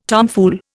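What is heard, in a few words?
"tomogram", Indian; Dictionary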